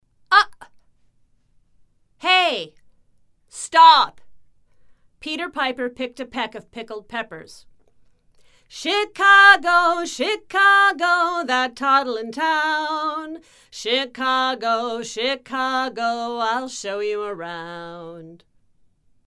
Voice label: After cardioid 18 inches female

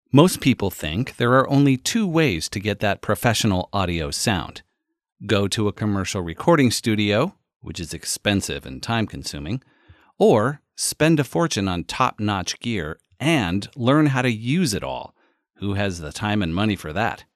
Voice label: Q2U Audio Sample With Noise Reduction

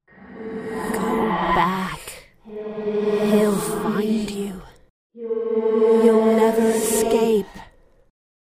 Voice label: forward reverse reverb

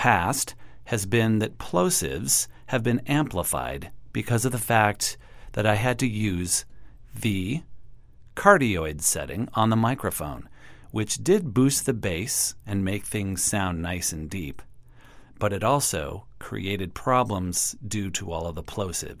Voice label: Voice over after omni with foam male

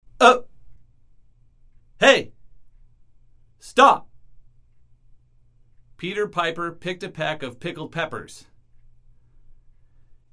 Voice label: After omni 18 inches male

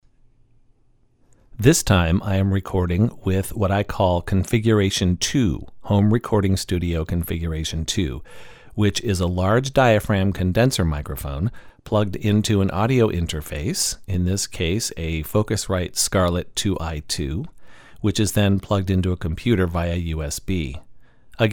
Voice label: Rode VO

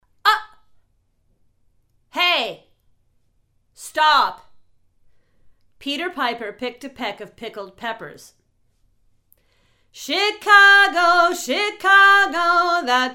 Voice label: Before cardioid 3 inches female

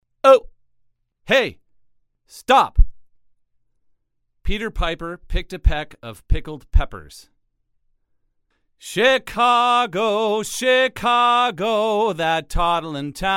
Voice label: After cardioid 3 inches male

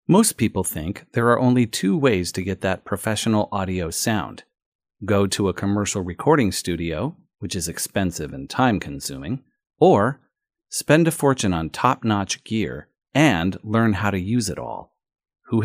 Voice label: USB Mic Audio With Noise Reduction; Maono

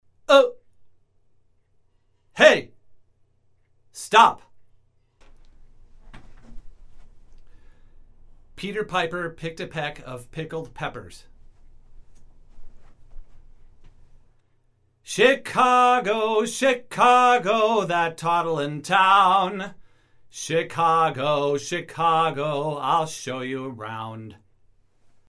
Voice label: omni 3 inches male

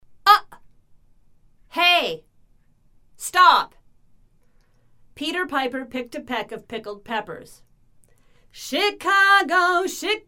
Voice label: After omni 3 inches female

